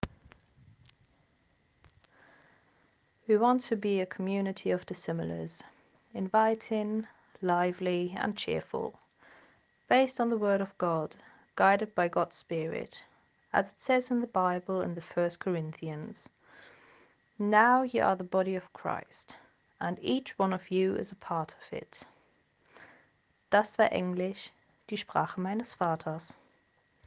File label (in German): Englisch